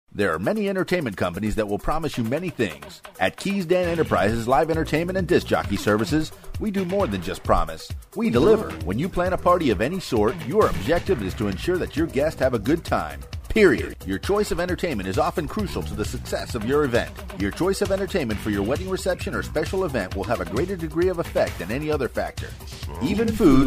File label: DJ Commercial